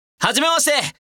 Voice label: Vo Male Hajimemashite; gekiban